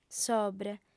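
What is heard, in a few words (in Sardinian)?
"sobre", female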